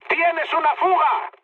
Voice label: tank damaged, mood high, speech; War Thunder

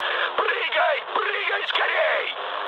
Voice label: bailout, mood high, speech ru; War Thunder

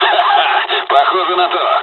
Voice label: dialogs russian; War Thunder

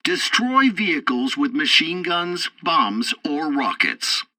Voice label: tutorial assault rockets, english; War Thunder

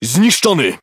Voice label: Speech Pilots Torpedo Destroy, PL; World Of Warships

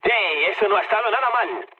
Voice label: trick, mood low, speech; War Thunder

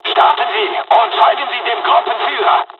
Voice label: second wave takeoff, dialogs german; War Thunder

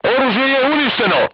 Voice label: voice message weapon damaged, serbian crew tanks

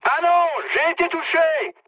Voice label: aircraft damaged mood high, speech fr; War Thunder

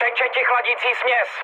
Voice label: damaged water, speech cze, mood med; War Thunder

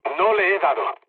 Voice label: bomb failure, mood low, speech; War Thunder

